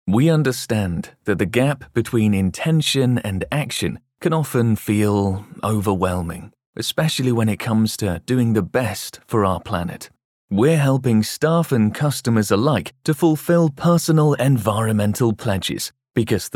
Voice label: corporate narration

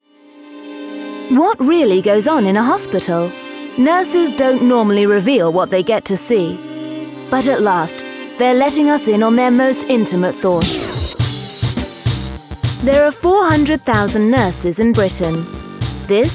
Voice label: Trailer; Nurses in Britain

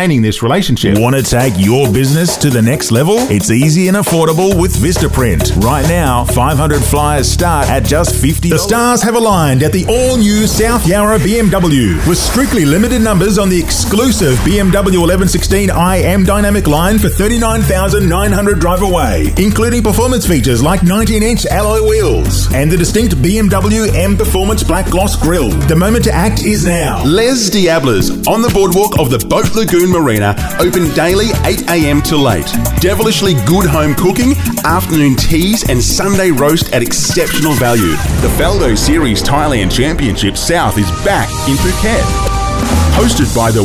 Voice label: voice over; English (Australian)

